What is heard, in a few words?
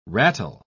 "rattle", pronounce